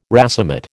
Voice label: "racemate", American